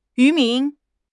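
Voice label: "渔民", Mandarin Chinese; HSK6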